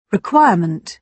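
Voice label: "requirement", British English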